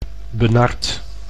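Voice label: "benard", Dutch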